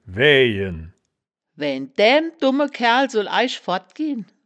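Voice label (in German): "waeaejen", Wittlicher Wörterbuch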